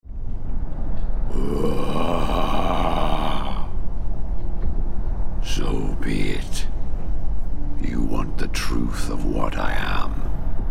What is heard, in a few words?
Gaming Evil Demon